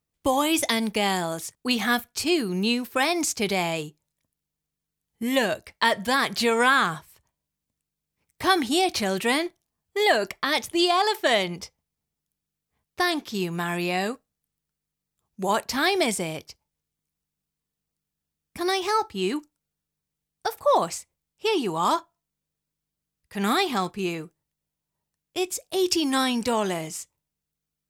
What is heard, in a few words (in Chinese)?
英式多角色模仿